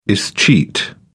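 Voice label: "escheat", British English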